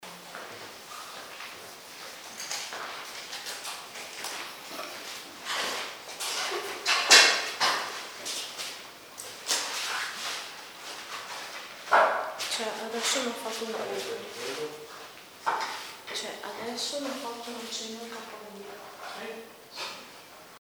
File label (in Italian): Sala felicita indagine vocalizzo maschile e poi k2